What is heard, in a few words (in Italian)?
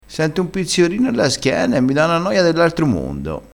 "pizziorino", crespinese